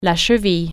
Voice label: "ankle", French

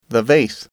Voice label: "vase", English

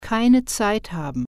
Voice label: "be busy", german

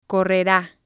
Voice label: "correra", spanish

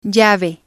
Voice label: "llave", spanish

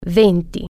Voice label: twenty, italian

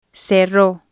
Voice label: "cerro", spanish

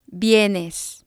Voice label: "vienes", Spanish

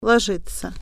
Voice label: lay down, russian

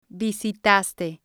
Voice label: "visitaste", Spanish

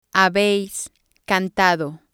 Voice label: "habeis cantado", spanish

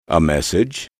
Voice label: "a message", English

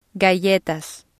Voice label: "galletas", spanish

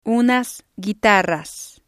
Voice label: "unas guitarras", spanish

